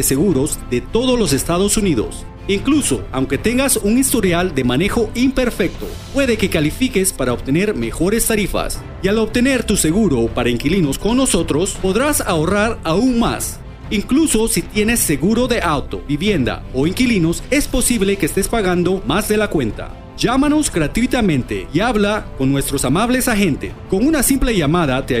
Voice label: Car Home Insurance Spanish

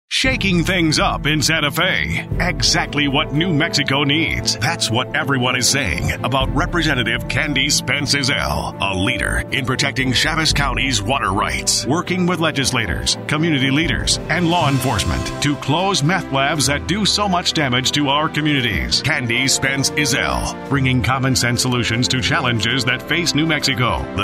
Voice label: Dramatic, political

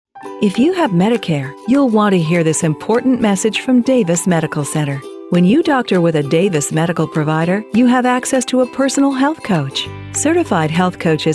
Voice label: Medical Center, radio; Davis

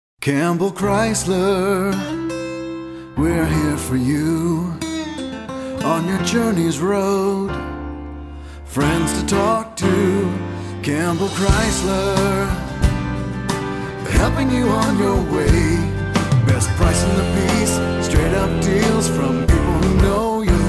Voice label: jingle, commercials

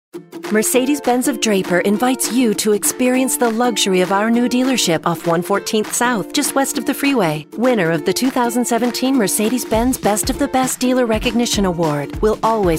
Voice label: Soft Sell, radio; SpotWorks Radio Production; Car Dealers

